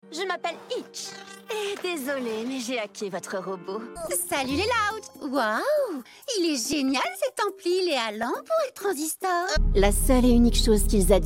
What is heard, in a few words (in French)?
Anime, demos voix